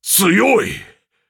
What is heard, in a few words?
defeat, ja; cookie run